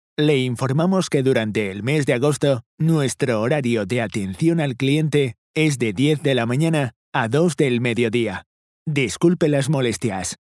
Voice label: spanish castilian ivr